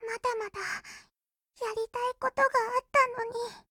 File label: BREAK JP